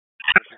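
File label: Police District Dispatch, p25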